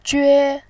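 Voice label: "jue", Mandarin, first tone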